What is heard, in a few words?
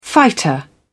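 "fighter", English, British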